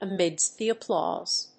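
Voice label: "amidst the applause", English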